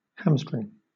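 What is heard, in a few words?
"hamstring", British English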